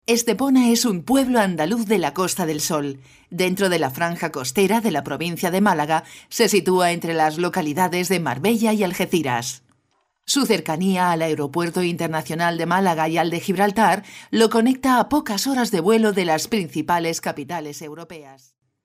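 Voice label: Spanish, female, narration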